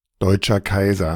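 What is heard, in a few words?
"Deutscher Kaiser", German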